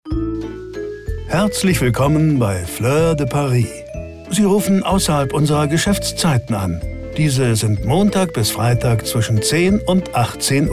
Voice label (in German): Ansage; Fleurs de Paris